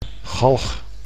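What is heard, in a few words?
"galg", Dutch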